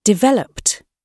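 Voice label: "developed", British English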